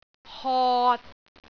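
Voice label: "hot3", Cantonese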